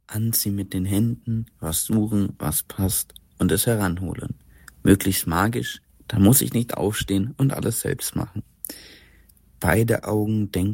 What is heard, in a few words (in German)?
deutsch